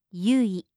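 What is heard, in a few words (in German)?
"Yui", japanische Mädchennamen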